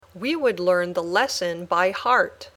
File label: Dictation